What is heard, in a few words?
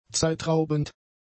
"zeitraubend", German; adjectives